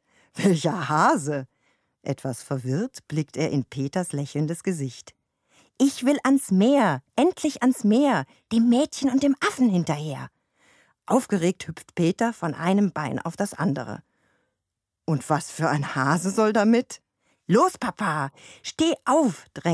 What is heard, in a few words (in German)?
Dialog Vater